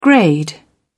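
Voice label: "grade", English, British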